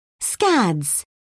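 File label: "scads", British English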